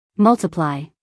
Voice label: "multiply", US English, female; everyone-can-use-english